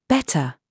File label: DRESS TRAP, minimal pairs